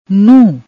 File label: "nuh", Punjabi